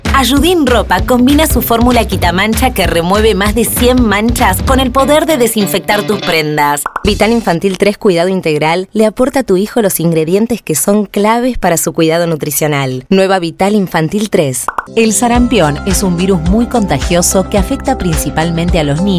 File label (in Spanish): rioplatense